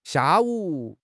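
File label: "xia4 wu3", Mandarin Chinese; HSK1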